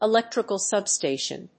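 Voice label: "Electrical substation", English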